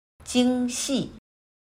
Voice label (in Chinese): "京戏", chino